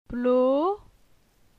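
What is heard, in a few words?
"Plo", French; phonetique; la syllabe o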